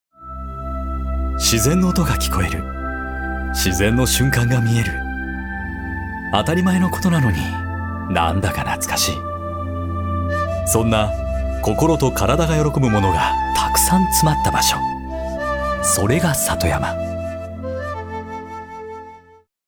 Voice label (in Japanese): 爽やかナチュラル